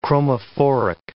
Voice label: "chromophoric", English